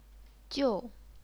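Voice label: "jiu", Chinese, fourth tone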